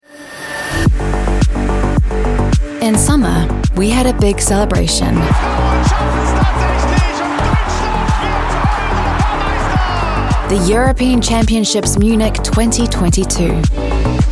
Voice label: Commercial Global